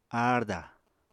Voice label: "aarda", apatani

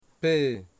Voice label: "wind", Wamut

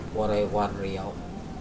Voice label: I have 2 canoes, Mokilese

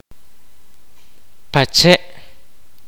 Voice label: "Jail", kaqchikel

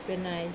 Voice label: "penai", dont, wamesa

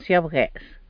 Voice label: "syabgues", tlacolula; chocolate atole

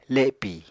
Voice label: "lepì", Apatani

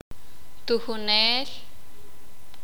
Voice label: sakapulteko; maestro

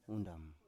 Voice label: "undam", Biak

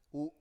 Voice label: "u", Biak

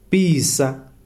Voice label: "burn", Kalanga

